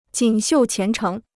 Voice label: "锦绣前程", Chinese